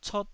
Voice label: "cot1", Taiwanese

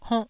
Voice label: "honnh1", Taiwanese syllable

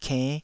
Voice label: "kennh5", taiwanese syllables

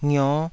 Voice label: "ngio5", Taiwanese syllable

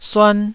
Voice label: "suan1", Taiwanese

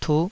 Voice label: "tuh5", taiwanese

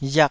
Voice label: "riak2", taiwanese

